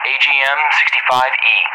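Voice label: "AGM-65E", English; Weapon; DCS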